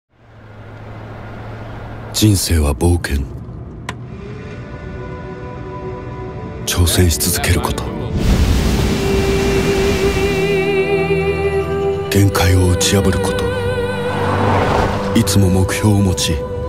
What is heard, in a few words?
Male 25 35 years Medium Low, japanese japan